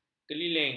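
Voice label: "keliling", Malay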